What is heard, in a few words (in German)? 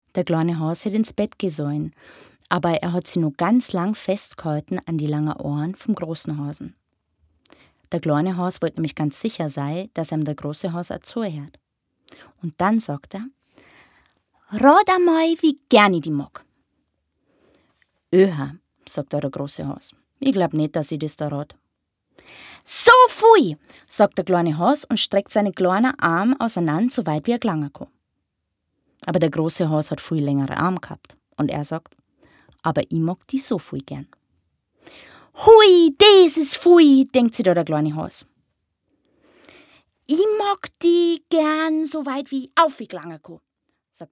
audio erzaehler